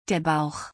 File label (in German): "der Bauch", German; body parts; Visual Dictionary